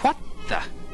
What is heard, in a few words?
what the, male; questions